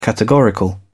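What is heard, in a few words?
"categorical", British English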